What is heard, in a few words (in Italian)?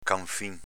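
"canfìn" Veneto dialect; s.m. lume a olio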